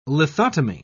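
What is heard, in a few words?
"lithotomy", British English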